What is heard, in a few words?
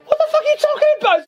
"what the fuck are you talking about", female; questions